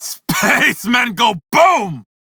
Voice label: Crew Gun Kill Fighter, Male; Dialog; en; Warframe; Railjack Crew; Red Veil

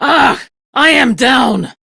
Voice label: Crew Need Revive, Steel Meridian Female; Dialog; en; Warframe; RailjackCrew

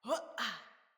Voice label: Mount Female, Battle Barks; Envoy

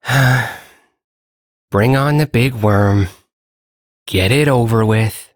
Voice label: Ambient Sedentary, en; Duviri

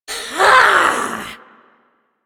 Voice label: Ability Throw, Barks; Warframe; Corpus Lich; Persona D

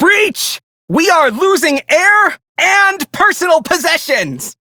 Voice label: Crew See Breach, Male; Dialog; Warframe; Railjack Crew; Perrin Sequence

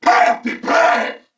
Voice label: Reload, Corpus Venus Male; Dialog; Barks; Battle; Warframe; CorpusVenusMaleA

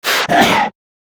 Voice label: Struggle, Battle Barks; Warframe; Grineer Manic

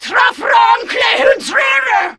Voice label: Attack, Female

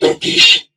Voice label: Patrol, Crewmen, en; Warframe; Corpus Crewmen; Crewman E; Dialog Barks Battle

